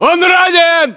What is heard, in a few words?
inform killfirm infantry, battlechatter ru